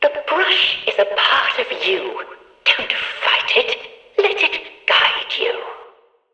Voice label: Background Announcements

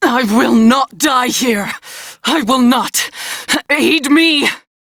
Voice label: Crew Need Revive, Female; Dialog; en; Warframe; Railjack Crew; New Loka

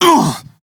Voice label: Pain, Male; Warframe; Railjack; Red Veil

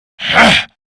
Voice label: Threatened, Male; Warframe; Grineer Male; Battle Barks

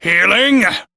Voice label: Crew Heal Self, Male, en; Warframe; Railjack Crew; Arbiters Hexis

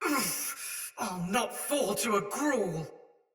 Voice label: Damage Soldier Mace Agent Female; Battle; Sinecure Melee

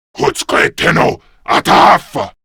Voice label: Player Spotted, Male

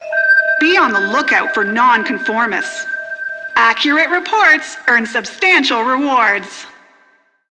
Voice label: PA System, en; Warframe; Solaris Venus

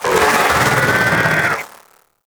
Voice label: Vocal Death; Warframe; Sentients; Conculyst

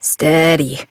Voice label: Horse Calm Adult; Duviri

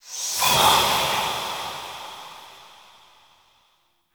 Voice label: Whisper, VOM; Kuva Lich; Requiem Mod

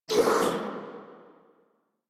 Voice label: Pain Sniper, en

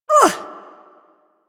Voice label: Ability Throw, Barks; Warframe; Corpus Lich; Persona B

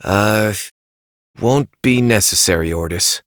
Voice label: Operator Voice, en; New War; Ascend Tower

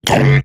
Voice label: Pain Eximus Corpus Robot Large; Dialog; Barks; Battle; Corpus Robotic Large

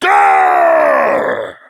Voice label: Angry; Warframe; Tyl Regor Boss; Efforts

